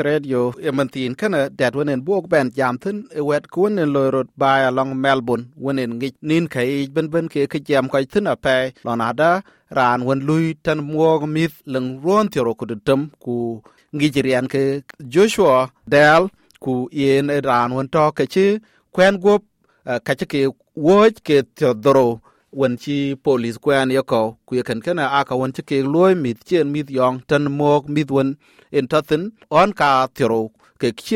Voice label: dinka; Löŋ muök mïïth Victoria; SBS Dinka